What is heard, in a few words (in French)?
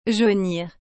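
"jaunir", French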